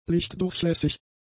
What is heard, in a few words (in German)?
"lichtdurchlässig", German; adjectives